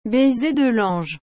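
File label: "baiser de lange", French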